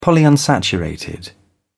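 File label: "polyunsaturated", British English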